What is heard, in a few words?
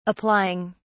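"applying", British English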